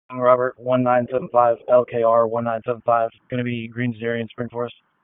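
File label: Raleigh Police Northeast District Dispatch, p25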